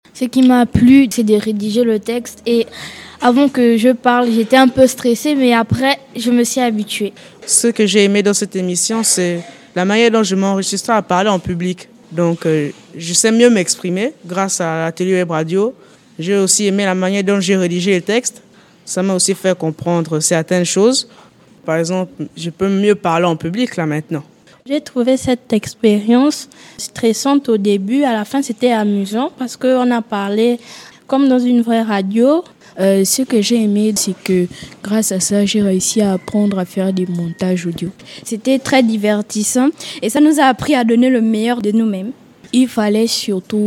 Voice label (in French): Webradio scolaire élèves Moanda